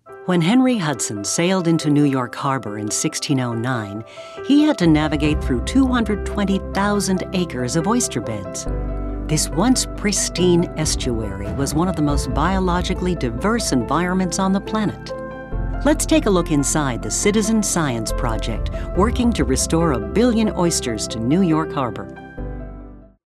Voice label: Narration